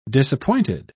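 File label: "disappointed", English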